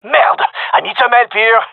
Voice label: gendermerie male; request help; Counter Strike Model Pack; CS:GO

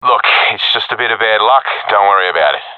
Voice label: round lost, professional epic; vo; Counter Strike Model Pack; CS:GO

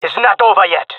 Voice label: round losing, male; player vo; Counter Strike Model Pack; CS:GO

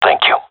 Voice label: thankful; Counter Strike; CS:GO; seal diver

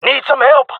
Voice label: request help, jungle male; player vo; Counter Strike Model Pack; CS:GO

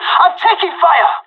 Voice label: radio taking fire

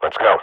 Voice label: radio bot go, swat; Counter Strike Model Pack; CS:GO

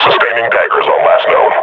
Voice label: suppressing, combine grunt

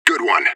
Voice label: radio bot nice shot; fbihrt

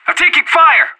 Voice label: radio taking fire, anarchist

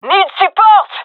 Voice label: request backup, gendermerie fem; vo

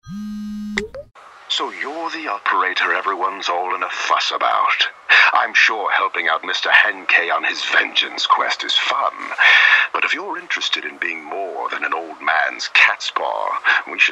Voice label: radio; campaign; bloodhound; booth

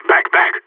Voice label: radio bot fall back; Counter Strike; CS:GO; phoenix

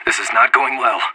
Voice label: scared emote, anarchist

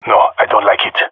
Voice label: disagree, jungle male epic; vo; agents; Source Model Packs; Counter Strike Model Pack; CS2

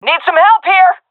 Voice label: request help, vo fem; agents; Source Model Packs; Counter Strike Model Pack; CS2

